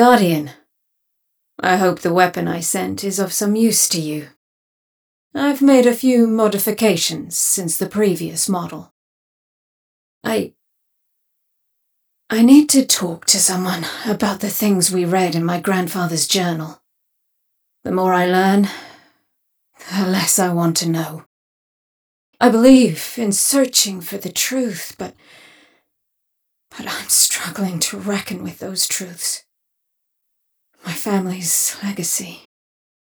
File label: message en